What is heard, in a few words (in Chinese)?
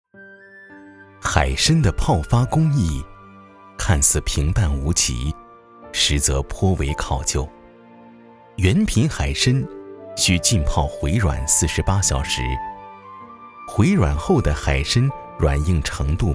男国 纪录片 舌尖 海参